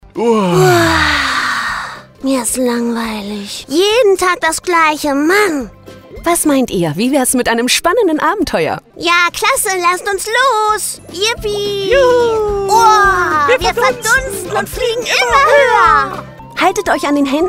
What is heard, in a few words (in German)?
Trickstimme Schulungsfilm; Troepfis weltweite Abenteuer